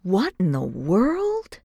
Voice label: female wondering; Voices; lucius ii the prophecy